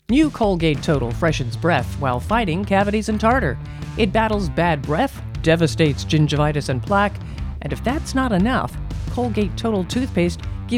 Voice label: Female